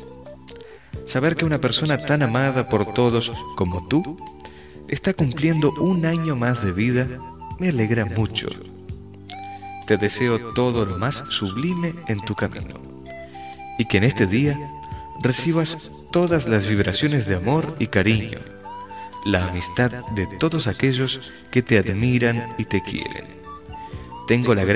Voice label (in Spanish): Cumpleaños gen M; Variadas em Espanhol; Masc